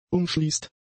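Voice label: "umschließt", German; adjectives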